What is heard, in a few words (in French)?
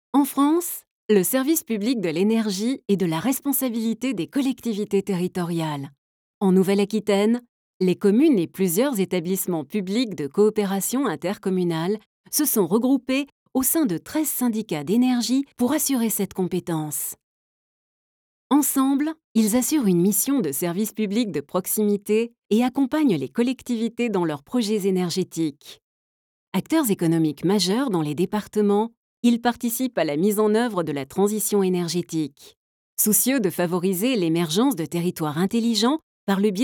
Voix Off; SDENA